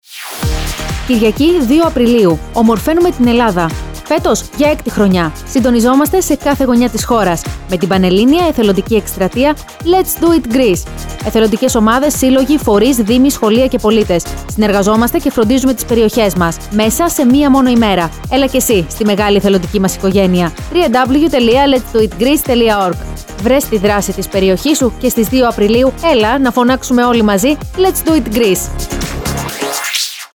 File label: Radio Spot; LetsDoItGreece